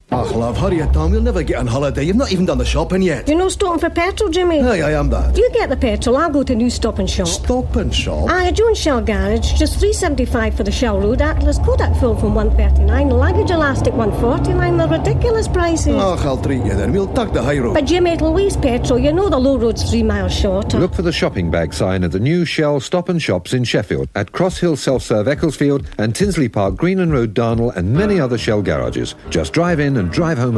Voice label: Radio Hallam Advert, Scottish; Shelll